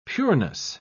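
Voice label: "pureness", British English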